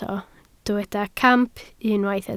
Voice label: "camp", Welsh